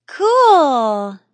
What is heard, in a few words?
Teen Girl Says Cool; Talking Sound Effects